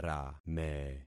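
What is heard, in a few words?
"rame", concatenated syllables; Affixing experiment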